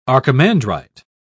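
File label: "archimandrite", English, British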